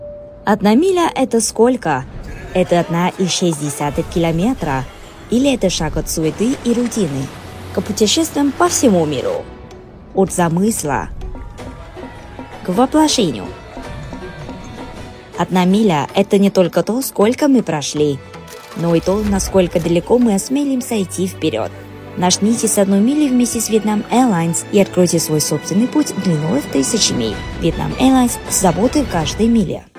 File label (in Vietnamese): Tiếng Nga; Vietnam Airlines